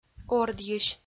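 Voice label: "ордйысь", Komi-Zyrian, female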